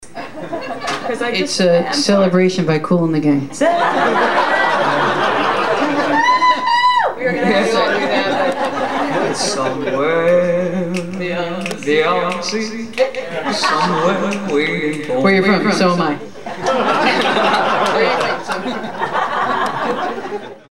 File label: Live Patter, Tin Angel